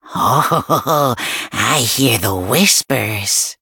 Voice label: touch, en